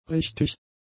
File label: "richtig", German; adjectives